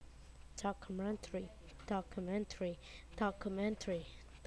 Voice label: documentary

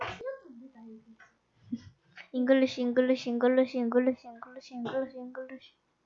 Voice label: English

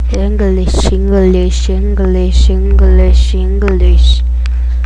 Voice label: English

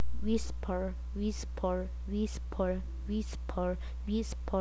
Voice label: whisper

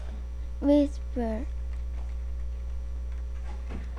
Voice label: whisper

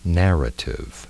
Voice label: narrative

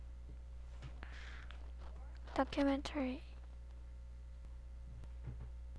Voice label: documentary

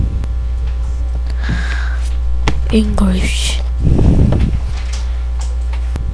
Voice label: English

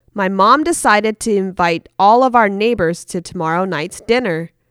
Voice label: English

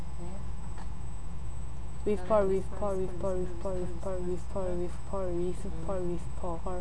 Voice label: whisper

